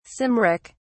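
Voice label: "cymric", British English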